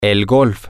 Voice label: golf, spanish, M

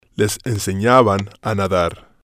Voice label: "les ensenaban a nadar", spanish

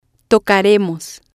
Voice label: "tocaremos", spanish

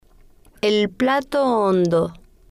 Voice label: "el Plato Hondo", spanish

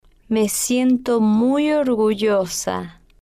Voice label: "me siento muy orgullosa", spanish

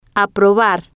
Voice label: "aprobar", Spanish

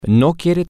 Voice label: he wants no, spanish, M

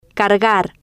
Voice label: "cargar", spanish

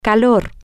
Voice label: hot, spanish, F

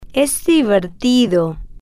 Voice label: "es Divertido", spanish

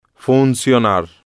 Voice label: "funcionar", spanish

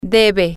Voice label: you should, spanish, F

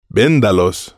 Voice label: "vendalos", spanish

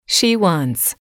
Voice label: "she wants", English

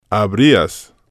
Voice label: "habrias", spanish

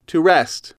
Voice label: "to rest", English

